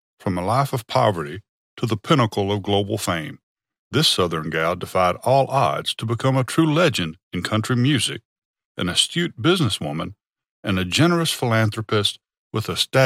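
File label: US English, Documentary; GoLocalise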